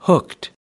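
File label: "hooked", American English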